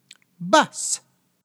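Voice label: "bus", English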